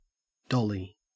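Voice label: "dolly", Australian English; pronunciations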